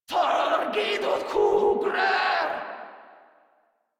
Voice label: Player Spotted Grineer Female, Barks, Battle

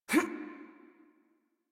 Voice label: Adult Operator Jump, en; Warframe; New War

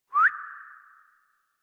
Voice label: Horse Stop Walk Adult; Warframe; Duviri

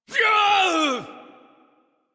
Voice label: Adult, en, Dialog; Warframe; Duviri Paradox Quest

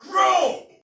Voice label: Attack Normal, Soldier Male; Dialog; Barks; Battle; Sinecure

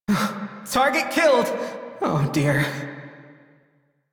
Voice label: Crew Enemy Defeat, Male, en; Dialog; Warframe; Railjack Crew; Perrin Sequence

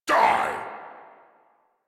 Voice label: angry, en; Warframe; Tyl Regor Boss; Efforts

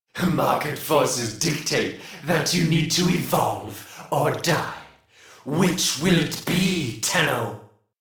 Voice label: Taunt Neutral; Warframe; Alad V Boss; en

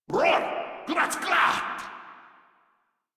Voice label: Surprised, Grineer Male; Warframe

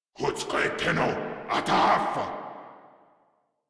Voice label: Player Spotted, Grineer Male

